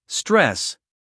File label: "stress", us male